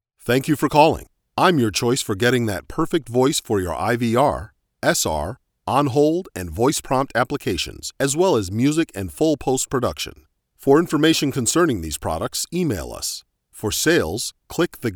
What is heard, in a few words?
Voice Sample, male voice talent